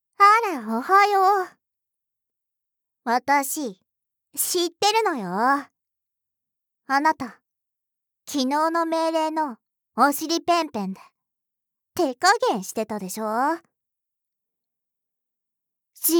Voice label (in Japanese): 朝からボイス, ASMR; ４日目 その１; ４日目の朝にお聞き下さい。